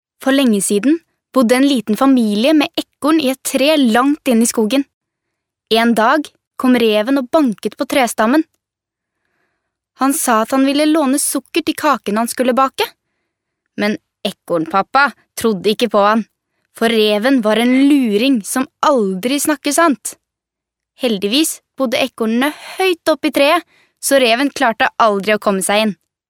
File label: norvegian girl